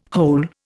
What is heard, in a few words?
"hole", Indian